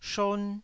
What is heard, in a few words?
"shon1", taiwanese Syllables